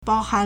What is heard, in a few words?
"bao1han4", Chinese